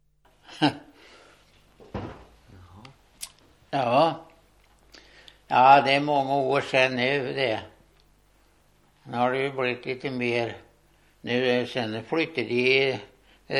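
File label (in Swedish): Linköping Östergötland, dialekter; Folkminnen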